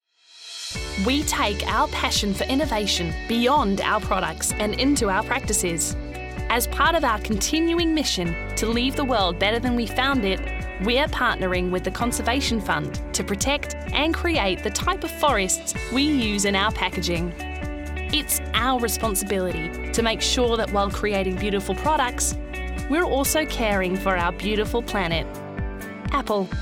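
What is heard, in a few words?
Australian English corporate; GoLocalise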